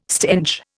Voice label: "stench", Indian

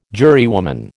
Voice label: "jurywoman", American

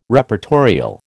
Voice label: "Reportorial", American

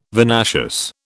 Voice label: "vinaceous", British

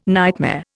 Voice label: "nightmare", Indian

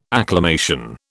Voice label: "acclamation", British

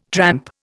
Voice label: "tramp", Indian

